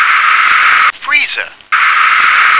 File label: "freezer", English